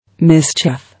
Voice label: "Mischief", English

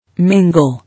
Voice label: "Mingle", English

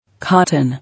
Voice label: "Cotton", English

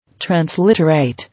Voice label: "Transliterate", English

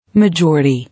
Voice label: "Majority", English

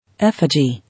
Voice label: "Effigy", English

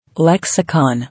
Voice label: "Lexicon", English

